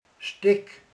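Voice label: "darab", Hungarian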